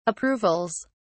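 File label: "approvals", British English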